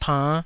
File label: "pann5", Taiwanese